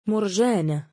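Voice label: "murjaanah" female name, Arabic; مُرْجَانَة; murjāna(h); ムルジャーナ; Murjaana、Murjaanah、Murjana、Murjanah; （1粒の小さな）真珠；（1かけらの、1個の小さな）珊瑚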